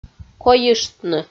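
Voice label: "койыштны" Komi, female; audio dict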